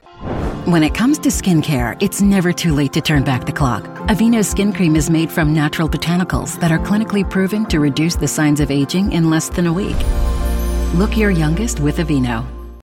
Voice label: Corporate, Compassionate Hopeful Warm, Female Voice; AVENNO